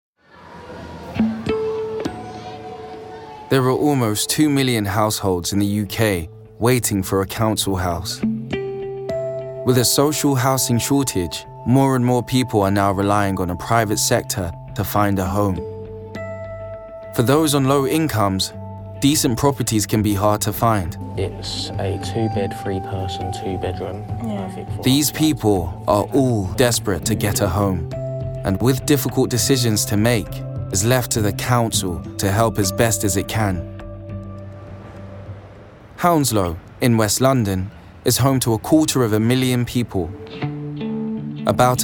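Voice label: Documentary reel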